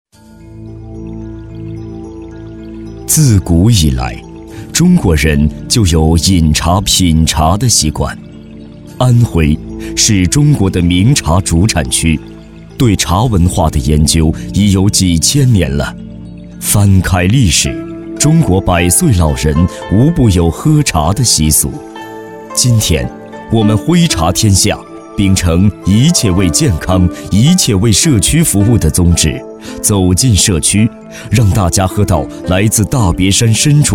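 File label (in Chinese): 国语 男声 专题片 大气浑厚磁性 沉稳; 徽茶天茶文化解说-沉稳抒情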